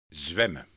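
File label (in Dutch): "zwemmen", Dutch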